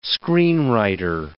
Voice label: "screenwriter", English

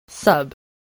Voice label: "sub", spelling

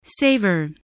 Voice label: "savor", english spelling

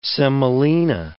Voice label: "semolina", English

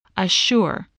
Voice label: "assure", english

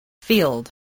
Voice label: "field", spelling

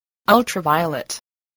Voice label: "ultraviolet", English spelling voice

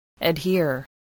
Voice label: "adhere", English voice

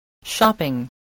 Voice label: "shopping", spelling

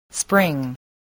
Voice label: "spring", voice

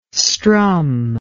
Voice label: "strum", English

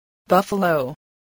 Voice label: "buffalo", spelling

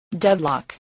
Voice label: "deadlock", English

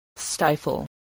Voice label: "stifle", voice

